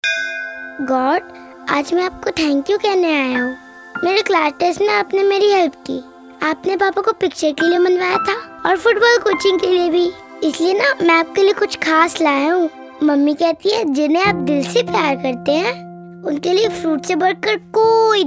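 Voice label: child ad real hindi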